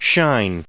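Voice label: "shine", US English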